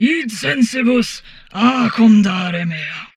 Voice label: humanoid portrait female; Stellaris; humanoids